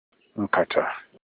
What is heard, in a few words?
"nkata", Olukumi, basket